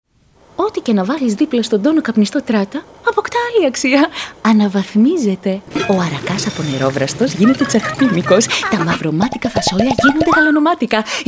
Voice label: character adult